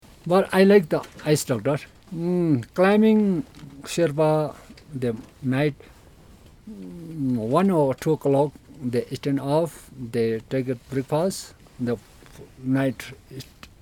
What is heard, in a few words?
Long Conversation; IceFallDoctors